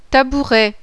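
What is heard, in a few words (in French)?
"TABOURET", French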